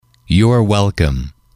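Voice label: your welcome, English, male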